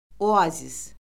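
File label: "oazis", Azerbaijani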